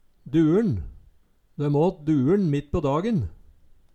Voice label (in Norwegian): "duuLn", Numedalsmål; Ordet er ubøyeleg.